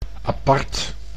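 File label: "apart", Dutch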